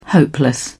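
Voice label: "hopeless", British English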